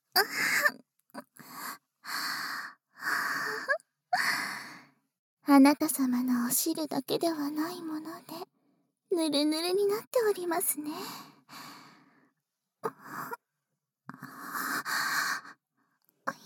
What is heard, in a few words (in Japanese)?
（バイノーラル 効果音なし）; 夜伽